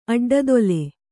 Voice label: "ಅಡ್ಡದೊಲೆ", Kannada